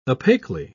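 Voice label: "opaquely", British English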